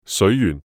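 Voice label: "水圓", Cantonese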